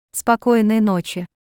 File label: Russian Good night; Greetings